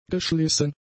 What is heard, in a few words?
"geschlissen", German; adjectives